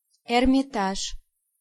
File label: "Hermitage", Pronunciation